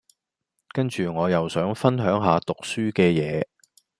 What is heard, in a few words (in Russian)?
Голоса, Гонконгский